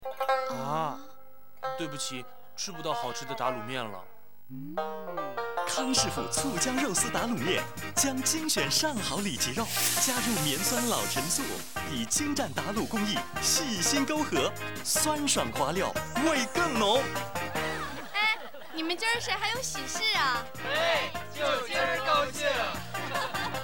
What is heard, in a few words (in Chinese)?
男 国语男声配音